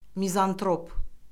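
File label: "mizantrop", Azerbaijani; Orfoqrafiya Lüğəti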